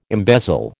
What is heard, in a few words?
"embezzle", American